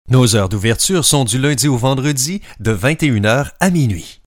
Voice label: male French